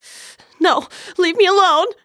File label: Panic; zps